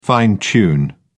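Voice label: "fine-tune", British English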